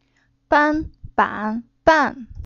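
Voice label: "Ban", Chinese syllable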